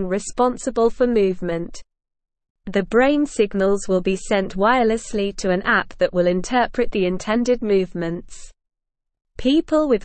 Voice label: English Newsroom Upper Intermediate SLOW Reading Neuralink Recruits Participants for Human; Neuralink Recruits Participants for Human Trial of Brain Computer Interface